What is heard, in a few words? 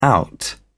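"out", British English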